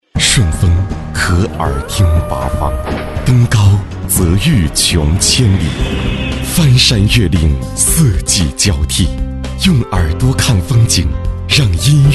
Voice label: 男 广告 大气版; 音乐好视力

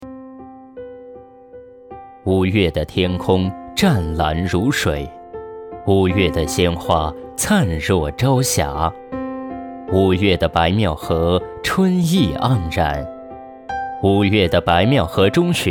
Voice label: 男, 专题, 人物解说

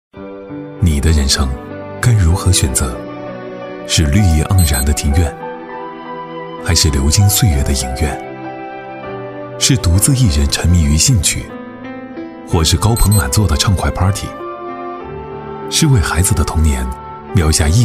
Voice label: 男 旁白 内心独白

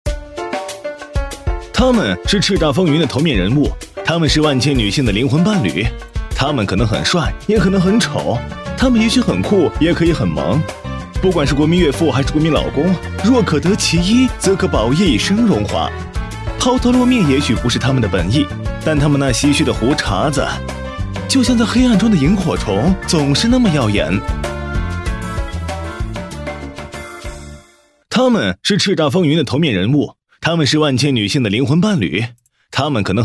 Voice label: 男; 飞碟说

